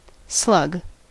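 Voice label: "slug", US English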